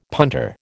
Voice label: "punter", American